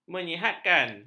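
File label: "menyihatkan", Malay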